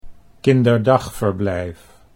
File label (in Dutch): "kinderdagverblijf", Dutch